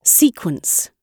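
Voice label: "sequence", British English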